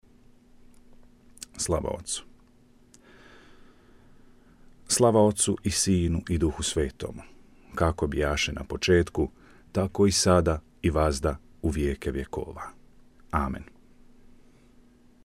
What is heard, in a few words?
Glory Be Croatian